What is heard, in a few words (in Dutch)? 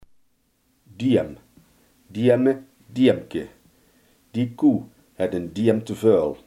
"speen", Limburgs